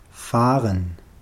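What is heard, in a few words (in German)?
"fahren", German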